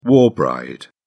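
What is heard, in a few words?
"war bride", English, British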